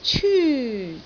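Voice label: "cyu1", Cantonese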